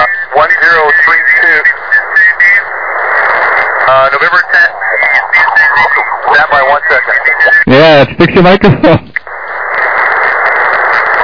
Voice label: SSB USB 14MHz

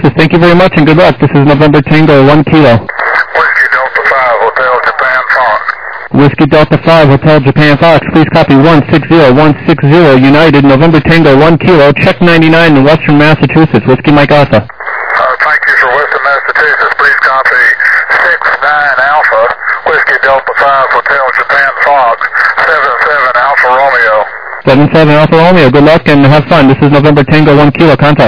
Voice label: USB, 21MHz

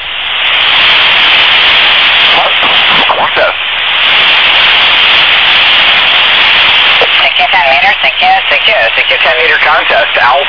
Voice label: USB 28MHz; ARRL10M

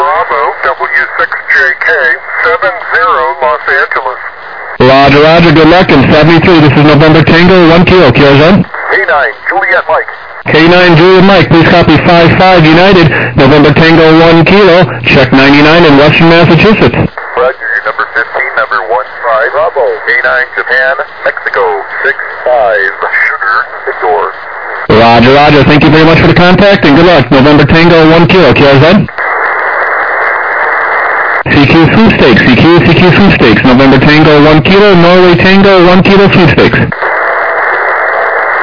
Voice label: USB, 21MHz

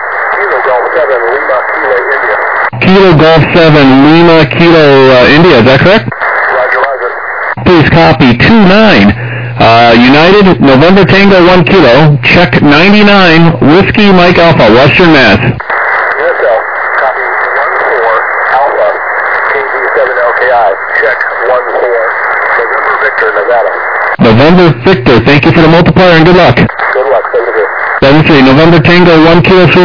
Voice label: USB, 21MHz

